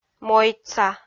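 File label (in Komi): "мойдса", Komi, female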